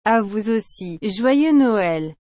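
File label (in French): "À vous aussi Joyeux Noël", French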